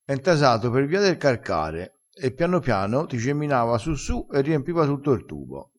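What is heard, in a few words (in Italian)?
"carcare", dialettale crespinese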